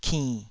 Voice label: "kinn3", taiwanese syllables